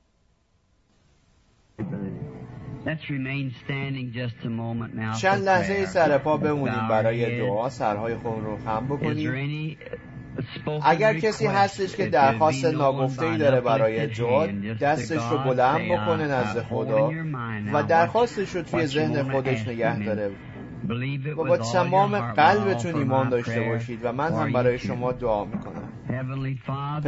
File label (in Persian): Farsi Voice Over; The Indictment